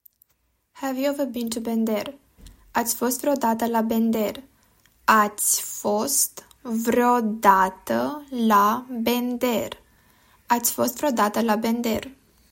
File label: Romanian Have you ever been to Bender; talking romanian phrasebook